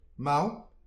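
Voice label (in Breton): "marv", Breton; petit precis de prononciation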